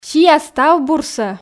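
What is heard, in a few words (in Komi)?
"сиа став бурсӧ", Komi, female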